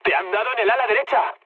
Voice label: right wing, mood low, speech; War Thunder